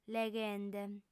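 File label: "leghende", female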